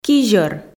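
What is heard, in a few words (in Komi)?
"кизьӧр", Komi, female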